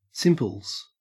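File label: "simples", Australian English; pronunciations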